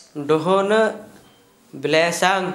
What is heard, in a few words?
roof of house, Gta, Dialect: Hill; roof of the house; household